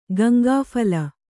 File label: "ಗಂಗಾಫಲ", Kannada; Gaŋgā phala; noun